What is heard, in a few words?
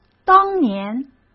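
"当年", Mandarin Chinese; hsk; chinese-flashcards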